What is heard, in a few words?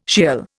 "Sheol", Indian